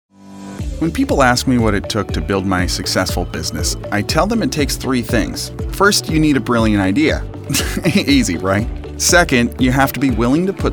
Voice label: Commercial